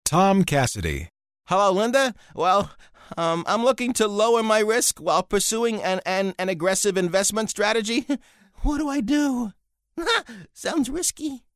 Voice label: NERD

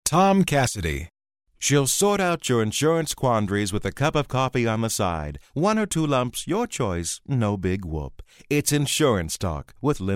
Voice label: SOFT SPOKEN

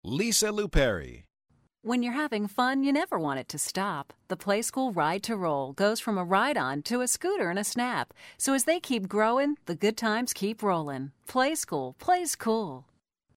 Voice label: warm; Warm And Fuzzy

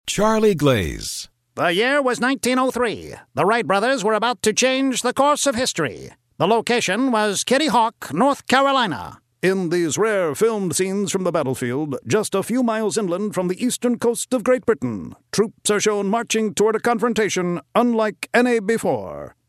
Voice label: NEWSREEL

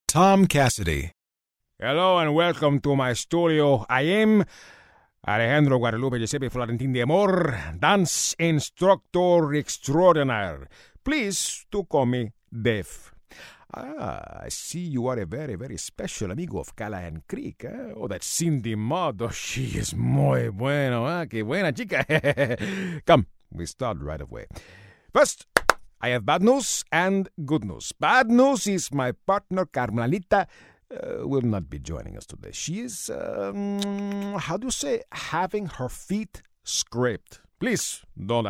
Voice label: SPANISH ACCENT

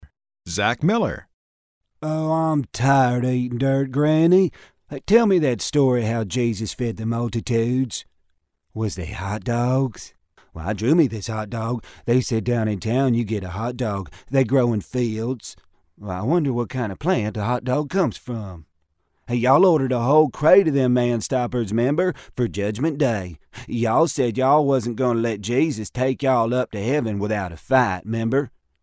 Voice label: hillbilly, redneck

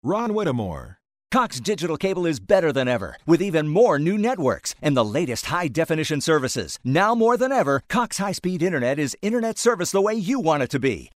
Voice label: retail announcer

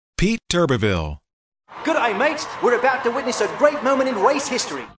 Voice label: AUSTRALIAN, Australian Accent

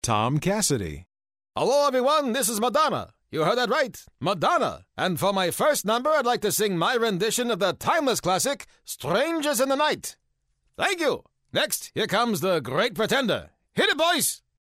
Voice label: JEWISH ACCENT

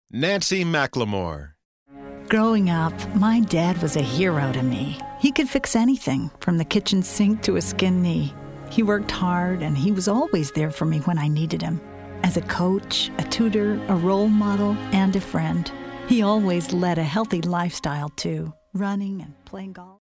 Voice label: CARING

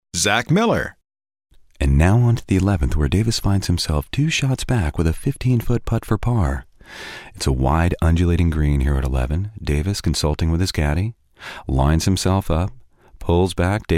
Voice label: golf announcer

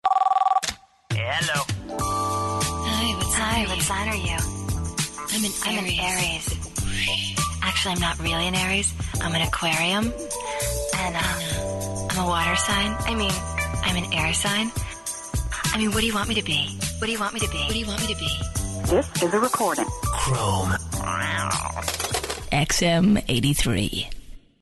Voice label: Airhead

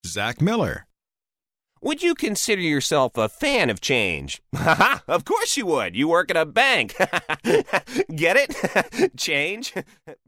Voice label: NERD

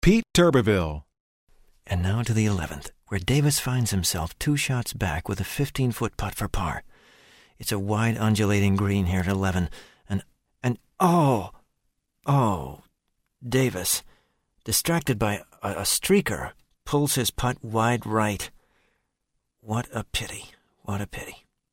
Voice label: GOLF ANNOUNCER

